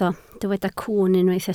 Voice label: "cwwn", Welsh